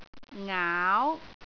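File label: "aau2", Cantonese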